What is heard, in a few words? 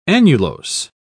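"annulose", British English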